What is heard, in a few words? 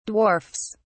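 "dwarfs", British English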